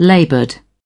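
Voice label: "laboured", British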